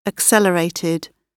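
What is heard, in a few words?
"accelerated", British English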